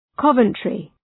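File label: "Coventry", English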